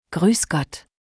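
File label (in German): "grus Gott", niemieckim; powitanie i pozegnanie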